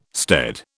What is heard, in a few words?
"stead", British